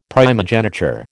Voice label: "primogeniture", American